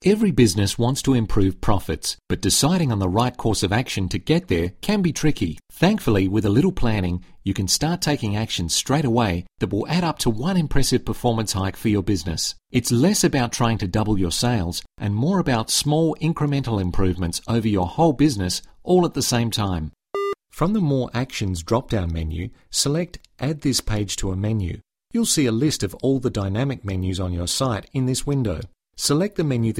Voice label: Explainer Tutorial